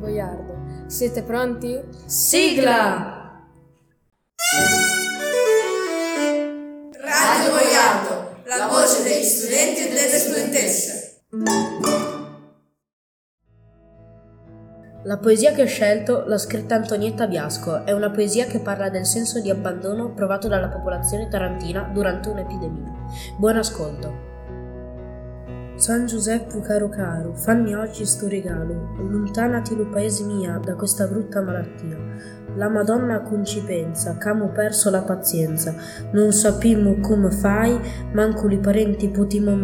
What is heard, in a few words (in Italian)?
POESIE IN UNGHERESE E DIALETTO LIZZANESE